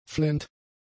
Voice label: "flennt", German; adjectives

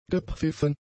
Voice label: "gepfiffen", German; adjectives

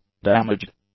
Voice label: "damaged", English; adjectives

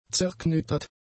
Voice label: "zerknittert", German; adjectives

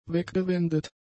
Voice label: "weggewendet", German; adjectives

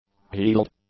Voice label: "heeled", English; adjectives

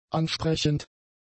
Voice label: "ansprechend", German; adjectives

